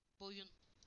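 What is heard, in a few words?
"neck", Turkish; PictureDictionary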